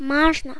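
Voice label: "masna", Serbian; ktuberling; tdegames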